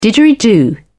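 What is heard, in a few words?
"didgeridoo", British English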